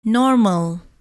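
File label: "normal", English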